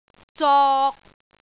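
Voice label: "zok3", Cantonese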